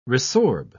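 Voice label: "resorb", British English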